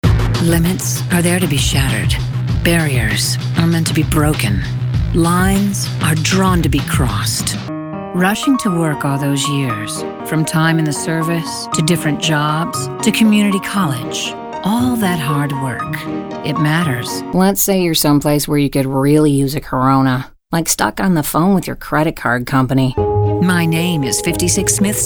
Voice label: COMMERCIAL